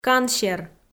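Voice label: "кансер", Komi, female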